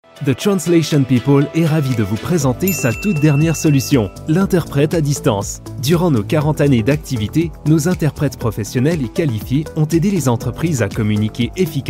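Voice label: french male explainer b2b, Genuine Natural French Voiceover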